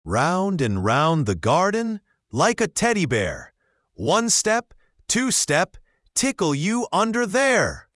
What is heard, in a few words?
male us; Round and Round the Garden